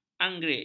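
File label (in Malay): "anggerik", Malay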